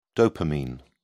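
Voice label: "dopamine", British English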